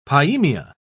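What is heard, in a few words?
"pyaemia", British English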